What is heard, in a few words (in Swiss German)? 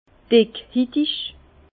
"dickhitig", Bas Rhin; vin; peau grasse